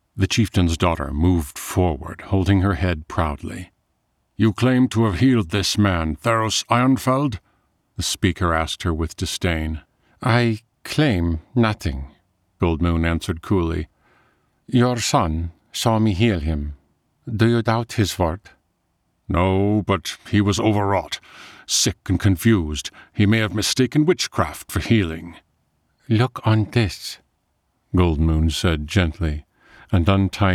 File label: High Fantasy, audiobook